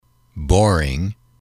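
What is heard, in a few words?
"boring", english, male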